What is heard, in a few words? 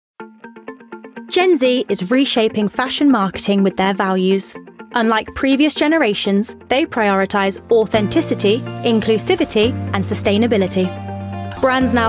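Corporate, 20s-30s. Female. Leicester.; voiceover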